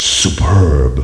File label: "superb", announce; mk2